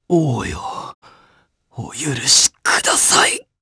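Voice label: Vox Dead, Japanese; voices; heroes; Roman; kingsraid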